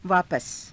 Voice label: "vaapasa", hindi